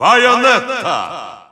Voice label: Russian Announcer; Bayonetta; SSBU